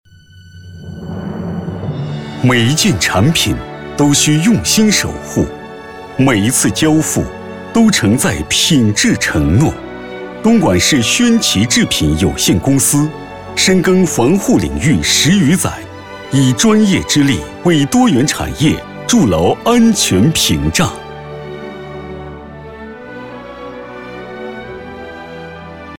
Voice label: 男 专题 企业产品介绍类专题

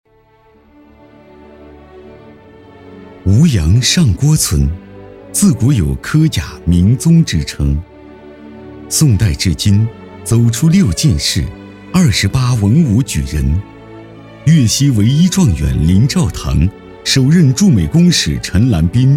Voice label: 男 专题 浑厚大气专题

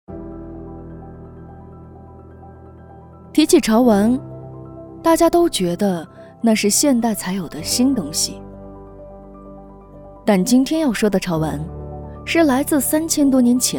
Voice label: 女 大气宣传

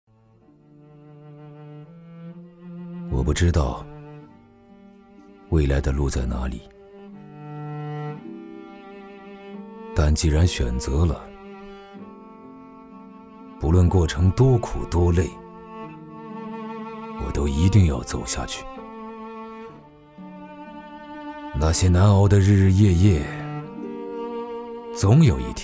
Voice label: 男 低沉深邃 走心态度

